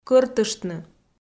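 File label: "кӧртыштны" Komi, female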